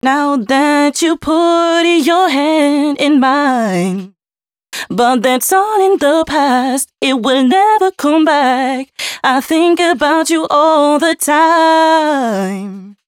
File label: female 120bpm, Dance Ultra Voice hooklines; Mutekki Media; Dance Ultra Voice VOL-1